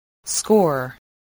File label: "score", english spelling